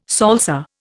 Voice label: "salsa", Indian